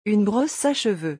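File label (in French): "une brosse a cheveux", French; vocabulaire; le lexique des vacances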